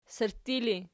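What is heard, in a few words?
sirtti ergative, Archi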